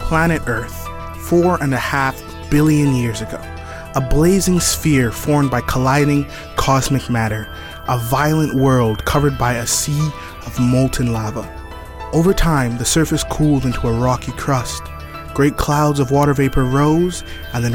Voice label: Narration VO